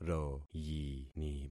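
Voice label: "royini", concatenated syllables; Affixing experiment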